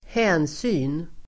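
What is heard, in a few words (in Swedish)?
"hänsyn", Swedish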